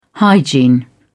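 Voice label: "hygiene", British English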